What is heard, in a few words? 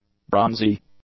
"bronzy", English; adjectives